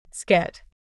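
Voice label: "Skit", English pronunciation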